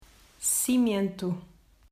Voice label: "Cimento", Portuguese